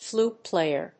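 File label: "flute-player", English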